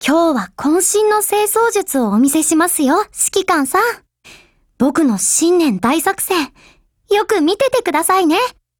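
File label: NEW YEAR, Japanese; M1897Mod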